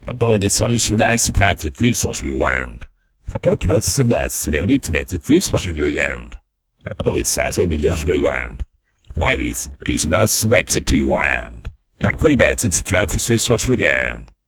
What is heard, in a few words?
good day, artificial intelligence; jarvis says good day